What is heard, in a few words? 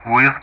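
"with", English; Ka-50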